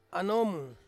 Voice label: "anom", name, Ansus

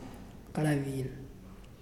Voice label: Skin, Muyuw; Lae Unitech

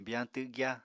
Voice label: "biyañ twgya", Apatani

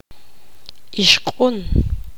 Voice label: poqomam; chica

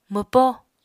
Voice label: "mwpó", Apatani

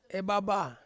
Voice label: "baba", Ansus; big

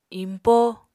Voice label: "iñpó", Apatani

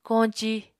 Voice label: "koñci", Apatani

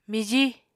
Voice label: "mijí", Apatani

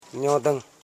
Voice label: nyo.dung, koro, house parts

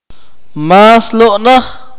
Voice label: "he did not eat it", Akateko

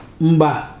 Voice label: "wing", Ibibio

